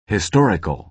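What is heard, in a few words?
"historical", American English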